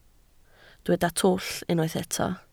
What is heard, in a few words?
"twll", Welsh